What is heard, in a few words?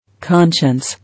"Conscience", English